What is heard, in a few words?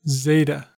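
"zeta", US English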